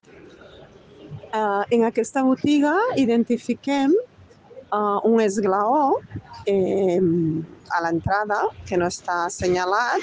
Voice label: Voice message